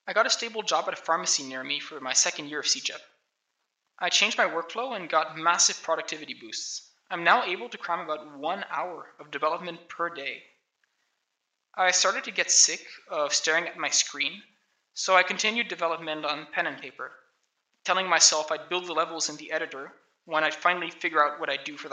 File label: voice, narration